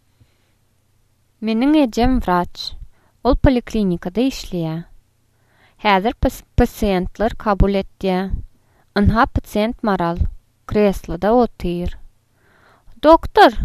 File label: dialogue poliklinikada, turkmen